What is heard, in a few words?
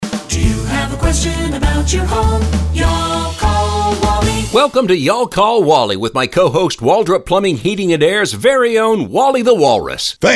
radio ads; Waldrop U